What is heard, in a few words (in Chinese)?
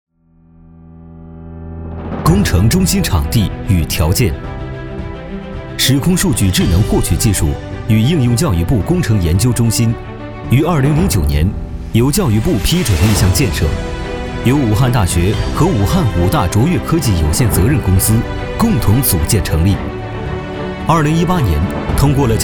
男, 专题片, 沉稳大气